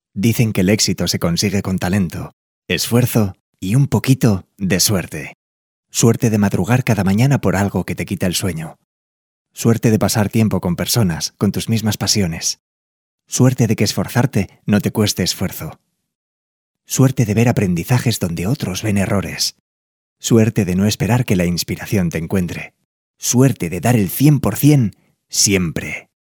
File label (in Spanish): Masculino